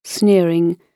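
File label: "sneering", British English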